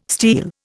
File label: "steal", Indian